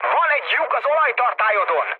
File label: damaged oil, speech hun; War Thunder